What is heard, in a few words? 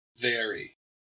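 "vary", US English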